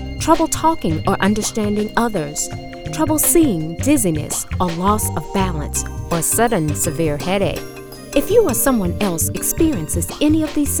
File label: Radio Stroke Awareness; Health